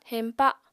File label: "heñpa", apatani